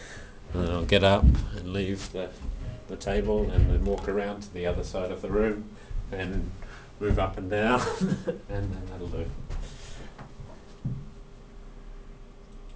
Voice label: array2 mic6; av16.3